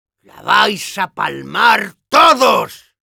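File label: Demoman taunts, Spanish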